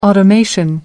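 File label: "automation", English, British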